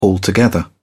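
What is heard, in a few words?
"altogether", British English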